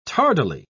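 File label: "tardily", British English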